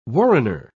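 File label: "warrener", British English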